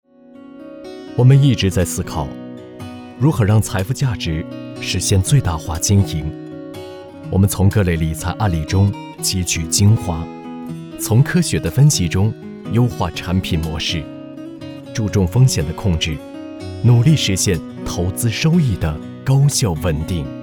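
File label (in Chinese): 独白类课件品质广告; 重庆商业银行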